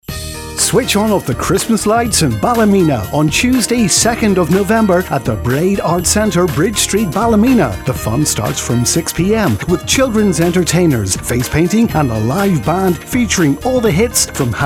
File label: xmas lites promo